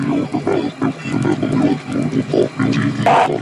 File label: mumble; freak fortress 2; fnaf; night5